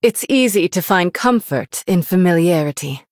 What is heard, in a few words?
Female Human MIRROR GREETINGS; en